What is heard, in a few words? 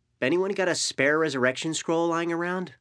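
female help; NWNDS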